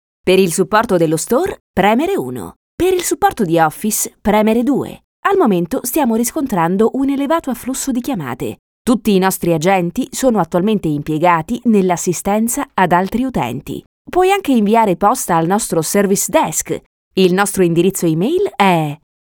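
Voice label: Italian, female, ivr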